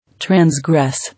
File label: "Transgress", English